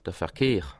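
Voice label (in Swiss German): "verkehr", Bas Rhin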